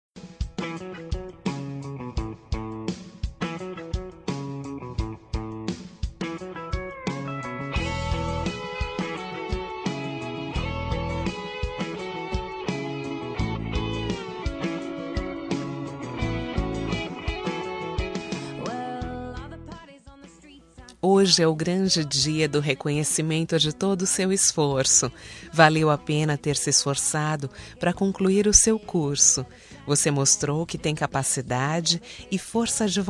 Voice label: Formatura Feminino; Amigo

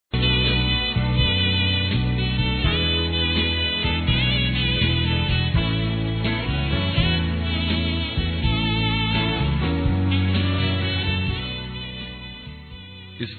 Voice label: ANIV. ATRAZADO ROMANTICO M; Aniversários; masc

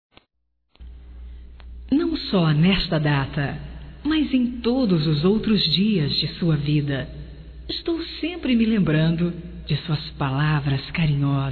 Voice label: MADRINHA, Telemensagens, Aniversarios, fem; Telemensagens 2017

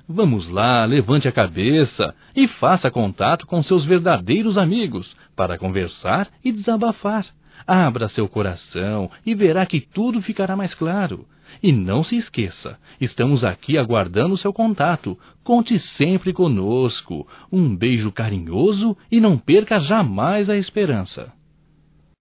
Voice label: Otimismo amiga duas vozes, Só Voz; Telemensagens; AMIZADE; masc

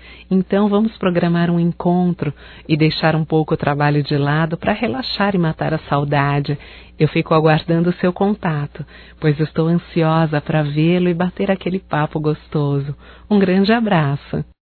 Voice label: SAUDADES AMIGO, Telemensagens, Só Voz, fem

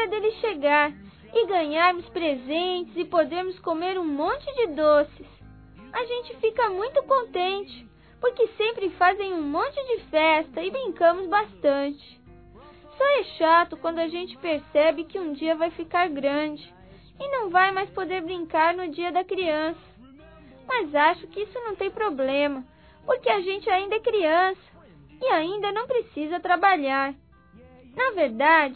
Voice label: DIA DAS CRIANÇAS P AMIGO(A), Infantil masc; Telemensagens 2017

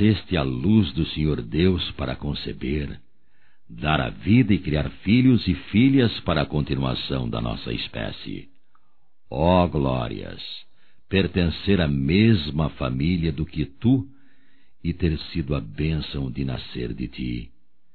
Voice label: Aniversário Mãe Masculino; Aniversarios; Telemensagens 2017

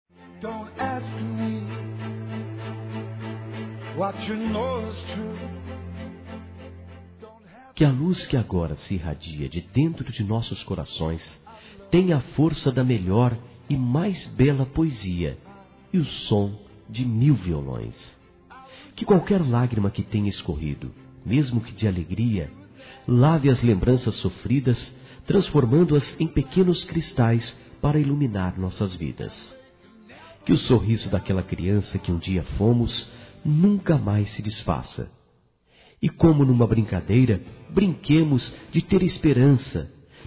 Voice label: Aniversário Namoro Masculino; Aniversários; Aniversários diversos

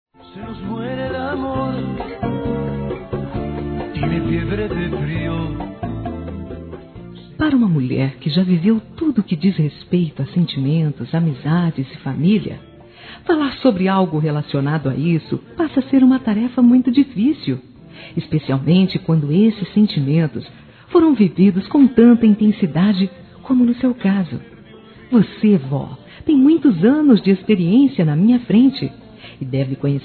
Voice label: AVÓ F, Telemensagens, Dia das Mães; Telemensagens 2017

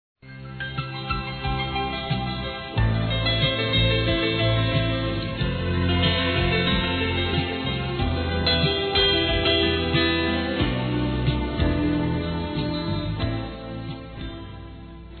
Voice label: aniversário para criança f; Aniversários; Aniversários diversos; fem

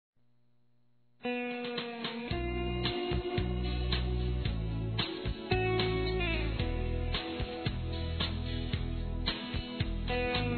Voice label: BOA SORTE NOVO EMPREGO Feminino; Telemensagens; Telemensagens 2017

